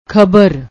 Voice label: "khabar", Punjabi; ਖਬਰ